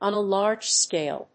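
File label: "on a large-scale", English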